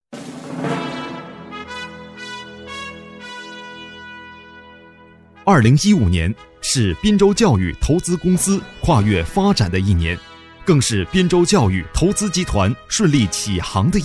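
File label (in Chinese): 男专题; 教育投资公司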